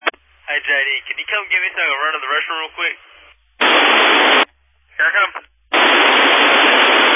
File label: Family Radio Service (FRS)